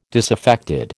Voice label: "disaffected", American

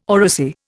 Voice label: "oracy", Indian

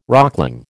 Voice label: "rockling", American

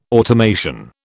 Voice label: "automation", British

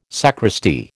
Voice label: "sacristy", American

